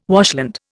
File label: "washland", Indian